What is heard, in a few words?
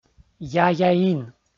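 "яяин", Komi-Zyrian, male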